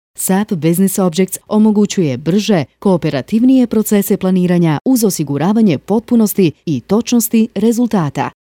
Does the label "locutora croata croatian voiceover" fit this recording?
Yes